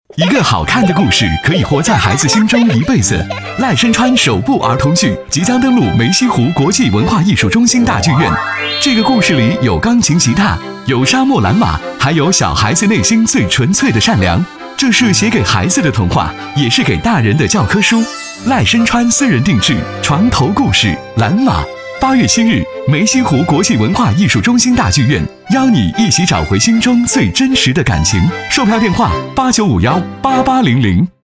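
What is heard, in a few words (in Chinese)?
广告活力轻松; 梅溪湖大剧院蓝马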